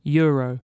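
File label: "euro", British English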